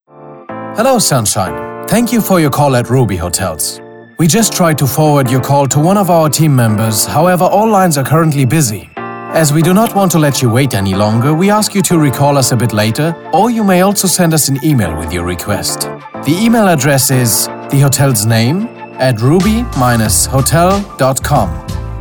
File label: eng